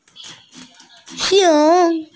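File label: "xiong2", Mandarin Chinese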